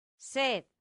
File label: "sed", Latin; santillana